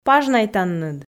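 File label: "пажнайтанныд", Komi, female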